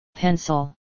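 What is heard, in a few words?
"Pencil", English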